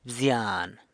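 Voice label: "bzyan", San Lucas Quiavini Zapotec; brother (of a female); bzyan[bzyaàa’n]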